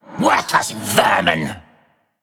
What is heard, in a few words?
Male Undead Attack